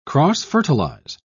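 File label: "cross-fertilize", British English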